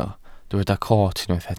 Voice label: "coot", Welsh